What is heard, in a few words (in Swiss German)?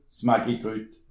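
"maggi", Alsatian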